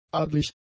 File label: "adlig", german; adjectives